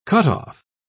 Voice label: "cutoff", pronounce